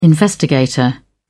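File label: "investigator", British English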